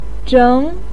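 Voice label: "zheng" second tone, Mandarin Chinese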